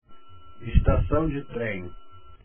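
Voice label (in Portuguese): "estacao" Portuguese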